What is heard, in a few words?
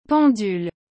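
"pendule", French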